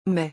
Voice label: "mais", French

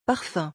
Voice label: "parfum", French

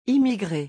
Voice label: "immigrer", French

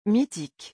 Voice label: "mythique", French

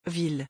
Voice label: "ville", French

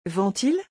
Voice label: "vend-il", French

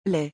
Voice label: "lait", French

